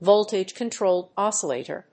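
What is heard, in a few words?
"VOLTAGE CONTROLLED OSCILLATOR", English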